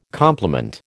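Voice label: "compliment", American English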